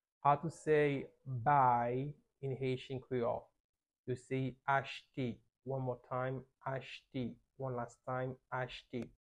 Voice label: How to say Buy in Haitian Creole – Achte pronunciation by a native Haitian Teacher